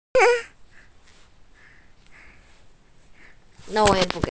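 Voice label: "che1", Mandarin Chinese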